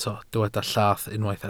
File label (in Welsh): "llath", Welsh